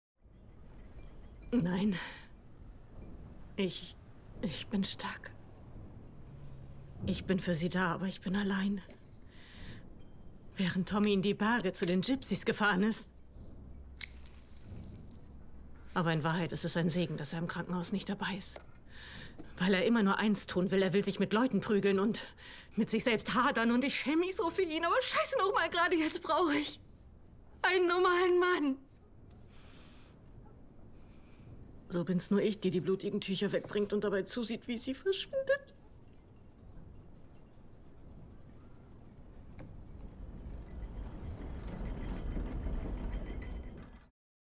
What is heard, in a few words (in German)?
SYNCHRO; PEAKY BLINDERS